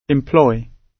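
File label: "employ", British English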